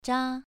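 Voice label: "zha2", Chinese pronunciation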